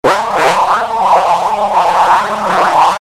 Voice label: alien speech